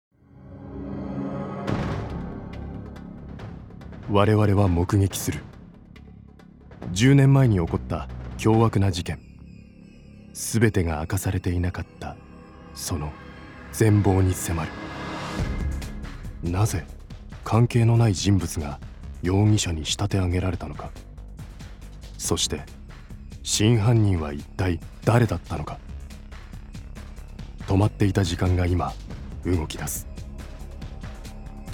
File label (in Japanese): ナレーション